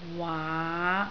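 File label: "waa5" Cantonese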